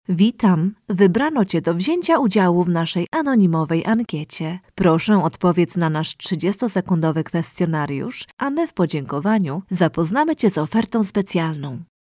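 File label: Polish IVR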